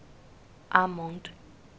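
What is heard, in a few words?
"almond", Chinese, female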